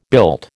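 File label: "built", American English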